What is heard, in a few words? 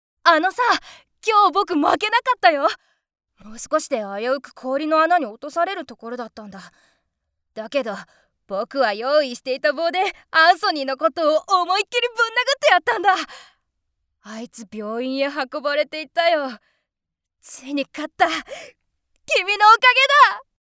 boy